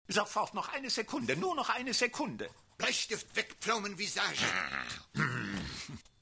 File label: German; Farnsworth; Wernstrom